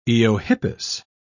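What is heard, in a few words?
"eohippus", English, British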